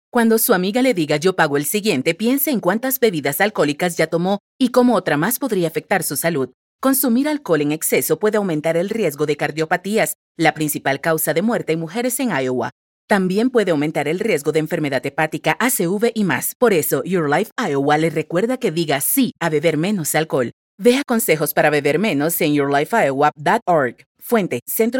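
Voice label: FEMALE, Radio Spot Spanish; Female VO only; Say Yes to Drinking Less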